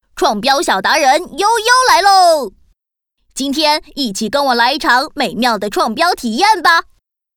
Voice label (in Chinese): 男童 小大人 女; 创标小大人女51